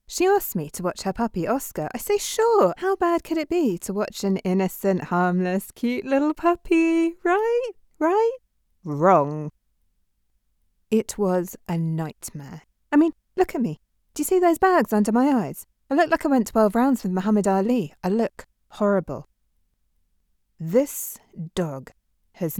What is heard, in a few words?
comic monologue